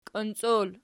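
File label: "kionciol" nominative, Archi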